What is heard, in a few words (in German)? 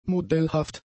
"modellhaft", German; adjectives